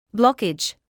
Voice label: "blockage", medical english; ˈblɒk.ɪdʒ/ BLOCK-ij